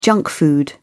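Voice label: "junk food", British English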